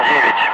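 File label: Russian speech, Ka-50 Wingman; Digits; DCS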